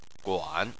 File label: "guan3", Chinese Phonetics